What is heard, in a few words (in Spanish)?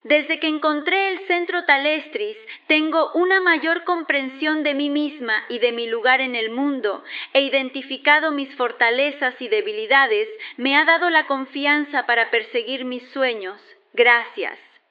mujer